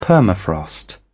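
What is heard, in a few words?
"permafrost", British English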